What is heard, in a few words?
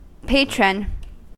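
"patron", US English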